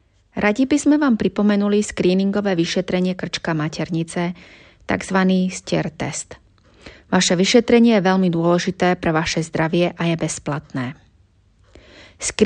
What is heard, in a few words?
Slovak; cervical screening